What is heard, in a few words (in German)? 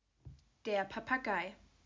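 "Papagei", German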